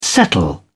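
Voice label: "settle down", British English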